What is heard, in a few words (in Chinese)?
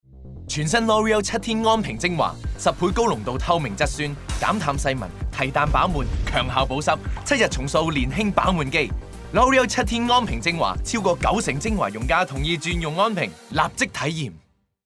粵語男聲; Loreal